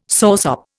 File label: "soursop", Indian